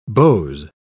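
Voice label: "beaux", British English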